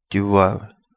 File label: "Di Wawa", Kapingamarangi